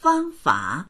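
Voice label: "fang1fa3", Mandarin Chinese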